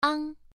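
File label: "ang" Mandarin, first tone, pronunciation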